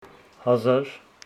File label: Homshetsi thousand